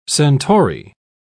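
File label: "centaury", British English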